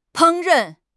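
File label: "烹饪", Mandarin Chinese; HSK6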